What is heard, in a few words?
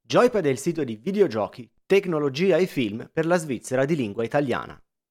SM7B; BlueYetiXReview